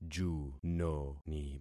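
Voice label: "junoni", concatenated syllables; Affixing experiment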